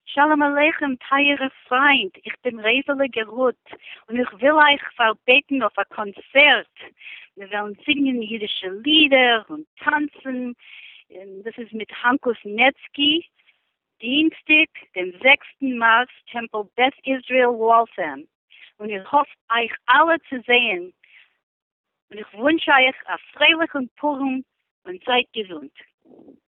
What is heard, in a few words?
yiddish voice anons; boston jewish music concert